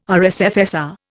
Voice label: "RSFSR", Indian